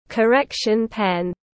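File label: "Correction pen", English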